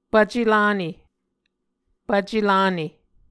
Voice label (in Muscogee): "pvce lane", Muscogee